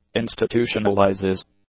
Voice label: "institutionalizes", English

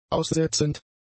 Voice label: "aussetzend", German; adjectives

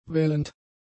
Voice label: "wellend", German; adjectives

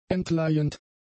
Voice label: "entleihend", German; adjectives

